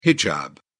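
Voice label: "hijab", British English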